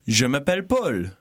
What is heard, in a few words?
conversation